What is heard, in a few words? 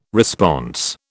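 "response", British English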